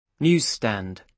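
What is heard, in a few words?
"newsstand" English, British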